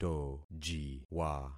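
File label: "tojiwa", concatenated syllables; Affixing experiment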